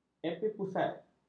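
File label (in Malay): "epipusat", Malay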